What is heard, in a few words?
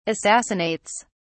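"assassinates", British English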